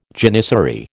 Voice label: "janissary", American

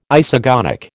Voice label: "isogonic", American

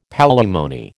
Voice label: "palimony", American

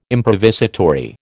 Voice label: "improvisatory", American

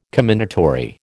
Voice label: "commendatory", American

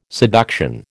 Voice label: "seduction", American

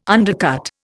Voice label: "undercut", Indian

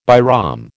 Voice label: "Bairam", American

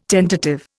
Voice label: "tentative", Indian

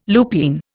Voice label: "lupine", Indian

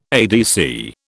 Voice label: "ADC", British

